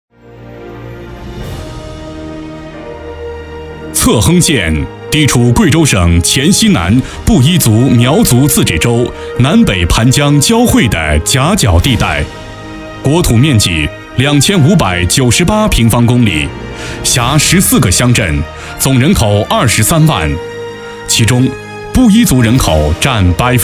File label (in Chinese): 专题 政府 稳重; 册亨县